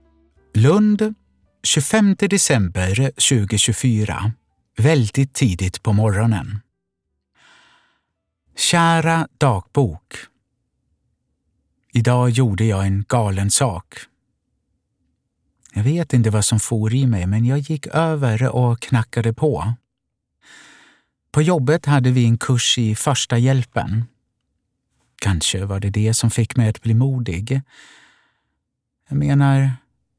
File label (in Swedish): julkalender vuxen monolog djup